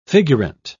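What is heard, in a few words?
"figurant", English, British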